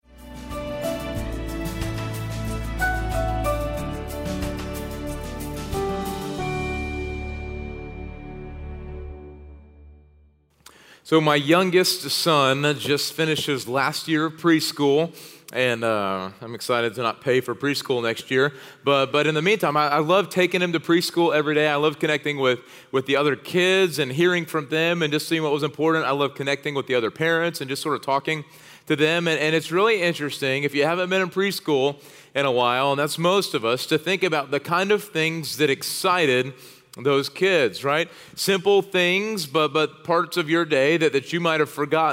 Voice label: Sermon English